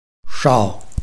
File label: "shao4", Chinese